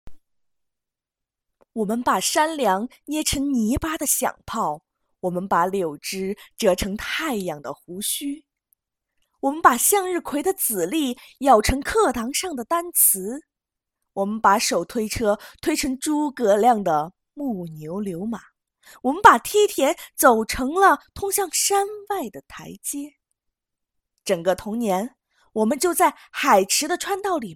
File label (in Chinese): 课件广播电台类